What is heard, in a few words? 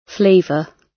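"flavour", British English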